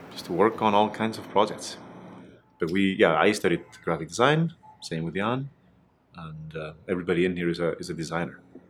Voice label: british guy